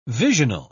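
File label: "visional", English, British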